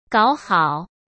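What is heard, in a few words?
"搞好", Mandarin Chinese; chinese flashcards; hsk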